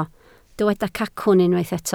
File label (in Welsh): "cacwn", Welsh